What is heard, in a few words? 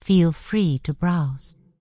Night Elf Female Priestess Vendor